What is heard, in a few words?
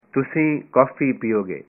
you will drink coffee, punjabi